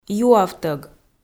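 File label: "юавтӧг", Komi, female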